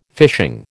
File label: "fishing", American